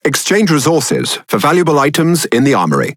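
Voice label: Speech Tutorial, British English; World Of Warships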